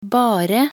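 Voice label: "bare", Norwegian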